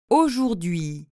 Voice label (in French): "aujourd'hui", French